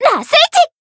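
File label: Voice finish, Japanese; nona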